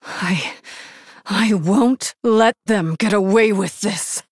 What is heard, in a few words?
Human Female; Jaina; Story Jaina Mission7 Victory